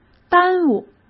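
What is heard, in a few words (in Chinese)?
"耽误", Mandarin Chinese; hsk; chinese-flashcards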